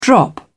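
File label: "drop back", British English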